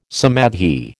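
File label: "samadhi", American English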